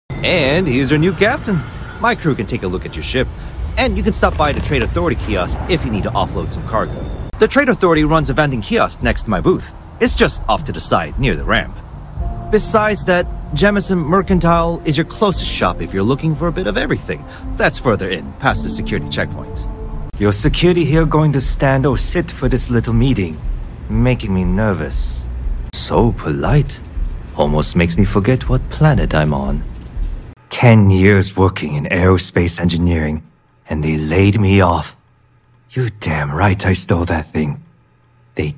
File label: animation men interactive